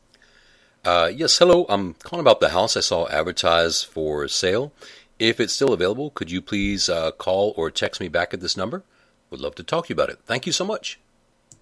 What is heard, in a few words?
Voicemail